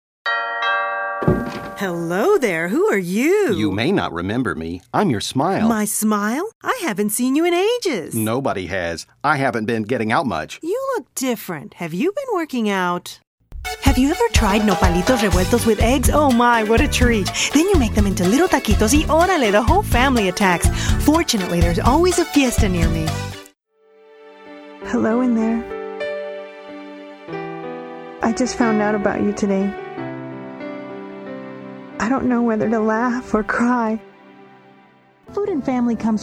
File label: English, females